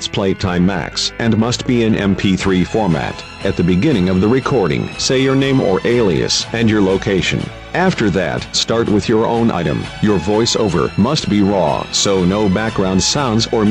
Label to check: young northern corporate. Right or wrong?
Wrong